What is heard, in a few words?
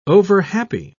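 "overhappy", British English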